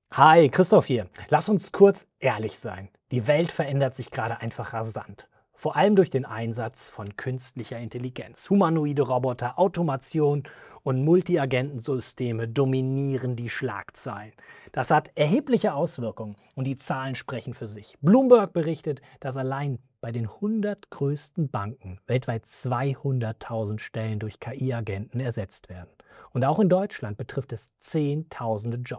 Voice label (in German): Sprachnachricht; ki-consultant-sprachnachrichten